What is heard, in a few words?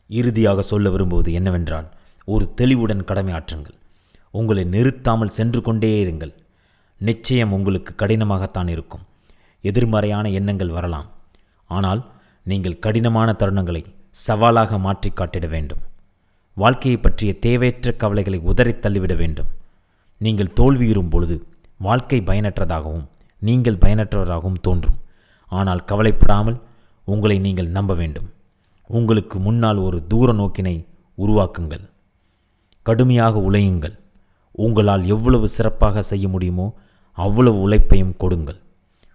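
elearning tamil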